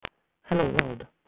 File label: garbled